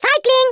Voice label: COWARD, Speech German; Worms Armageddon